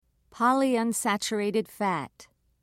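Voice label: "polyunsaturated fat", British English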